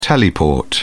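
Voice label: "teleport", British English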